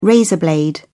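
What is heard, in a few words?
"razor blade", British English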